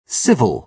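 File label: "civil", British English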